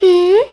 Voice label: boy rollover; Wii